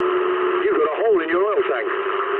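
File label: damaged oil mood low, speech English; War Thunder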